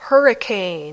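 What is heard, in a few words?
"hurricane", English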